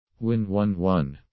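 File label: "win won won", English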